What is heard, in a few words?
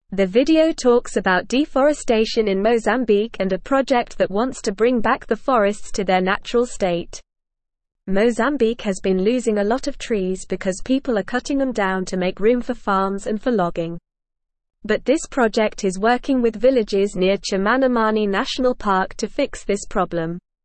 English NORMAL Reading; Restoring Mozambiques Forests A Sustainable Partn